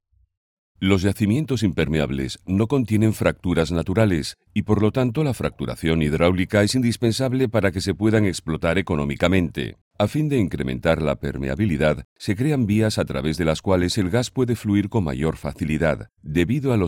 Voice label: Spanish ES Male, multilingual voiceover